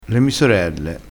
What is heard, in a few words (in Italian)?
"mi", crespinese